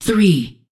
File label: Voices Announcer Status; unreal development kit